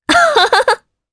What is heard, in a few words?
Happy, jp; Priscilla; kingsraid; voices heroes